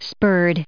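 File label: "spurred", US English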